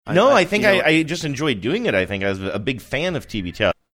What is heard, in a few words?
muting his own microphone mid sentence